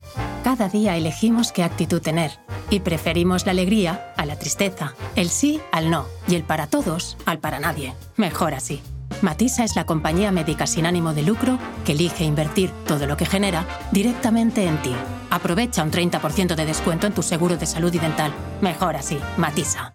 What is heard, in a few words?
commercial, spanish, female